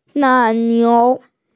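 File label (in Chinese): "nai3niu2", Mandarin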